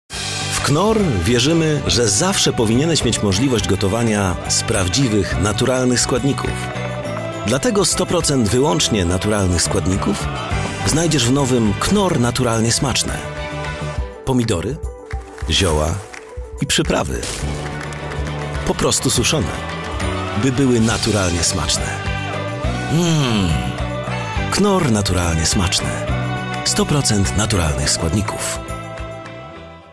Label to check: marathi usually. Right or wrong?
Wrong